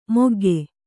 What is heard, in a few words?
"ಮೊಗ್ಗೆ", Kannada